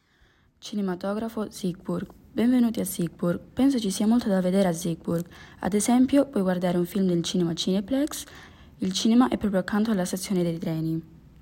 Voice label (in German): italienisch; Cineplex